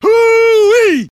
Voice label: cheer

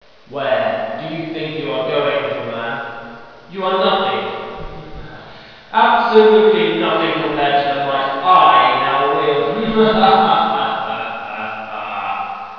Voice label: evil; gman

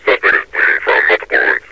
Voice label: suspect is bleeding, metrocop zombie; scmod

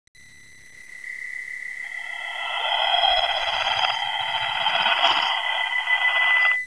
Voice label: lady alert

